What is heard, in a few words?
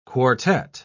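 "quartette", British English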